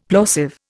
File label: "plosive", Indian; Dictionary